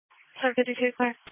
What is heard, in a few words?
Police Patrol 1 (Dispatch)